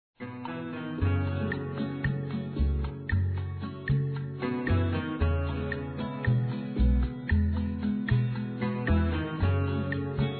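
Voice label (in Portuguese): Romantica Masculino; Aniversários; ANIVERSARIO romantico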